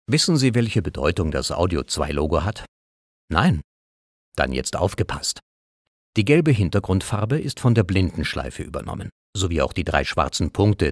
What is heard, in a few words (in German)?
Hochdeutsch, sprechproben